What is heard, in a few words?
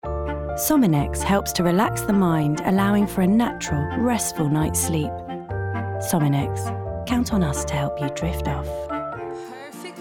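Commercial Reel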